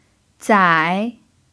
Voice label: "zai3", chinese